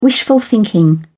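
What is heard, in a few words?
"wishful thinking", English, British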